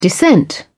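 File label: "descent", British English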